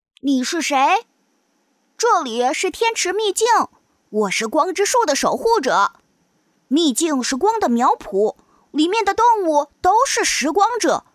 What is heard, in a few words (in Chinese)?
女 卡通角色【男孩-正太】; 可爱